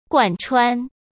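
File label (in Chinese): "贯穿", Mandarin Chinese; chinese-flashcards; hsk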